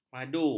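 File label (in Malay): "madu", Malay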